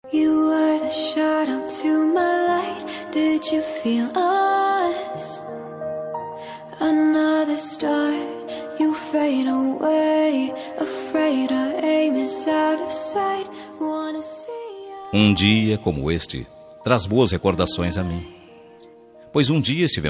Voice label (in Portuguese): dia dos namorados ex masculina; para ex namorada